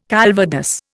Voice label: "calvados", Indian